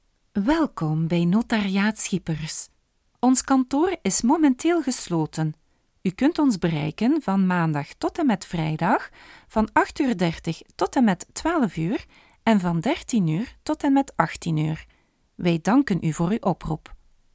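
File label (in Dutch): Voice Notariaat, voice-over, Dutch; NL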